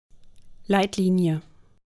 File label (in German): Fahrschule berliner Dialekt Leitlinie